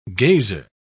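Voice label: "gazer", British English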